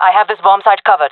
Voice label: guarding bombsite, jungle fem; player vo jungle fem